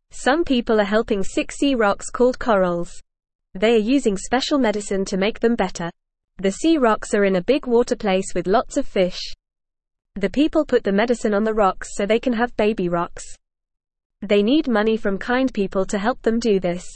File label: Beginner FAST Reading, English; English Newsroom; Helping Sick Sea Rocks Special Medicine for Corals